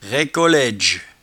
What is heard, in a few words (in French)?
"raicolaidge", patois jura